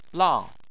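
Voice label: "lang", Chinese, fourth tone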